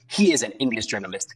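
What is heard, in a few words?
VoiceActing es, Male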